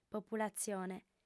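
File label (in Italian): "populatzione", lingua sarda, fem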